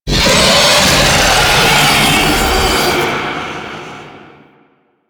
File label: Vocal Taunt; Warframe; The New War; Archon; Boreal